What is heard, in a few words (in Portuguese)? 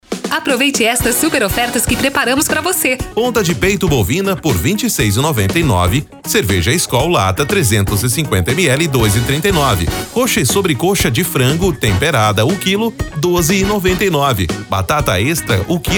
Amostra Anuncio Personalizado